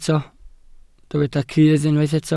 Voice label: "cudd", Welsh

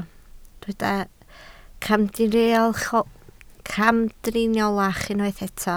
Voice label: "camdriniolach", Welsh

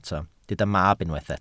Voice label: "mab", Welsh

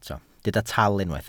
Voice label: "tal", welsh

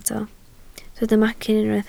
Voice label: "macyn", Welsh

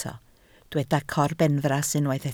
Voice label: "corbenfras", Welsh

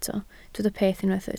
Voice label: "peth", Welsh

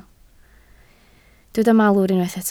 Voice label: "malwr", Welsh

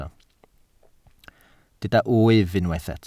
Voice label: "wyf", Welsh

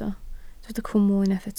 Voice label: "cwmwl", Welsh

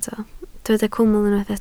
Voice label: "cwmwl", Welsh

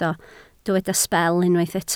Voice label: "sbel", Welsh

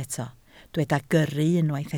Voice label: "gyrru", Welsh

